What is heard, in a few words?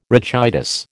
"rachitis", American